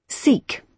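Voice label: "seek", British English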